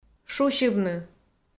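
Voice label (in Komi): "шусьывны", Komi, female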